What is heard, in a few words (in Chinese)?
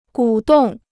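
"鼓动" Chinese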